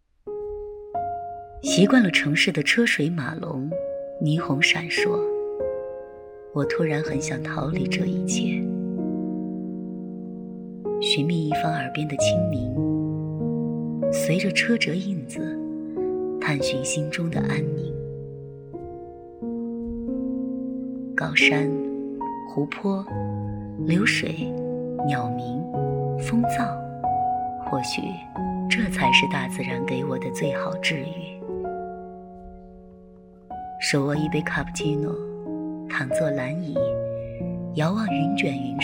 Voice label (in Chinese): 心灵独白风景篇